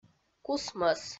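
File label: "кусмӧс", Komi, female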